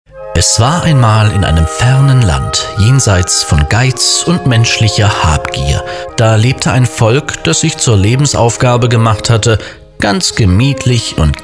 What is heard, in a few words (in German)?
hoerbuchsprecher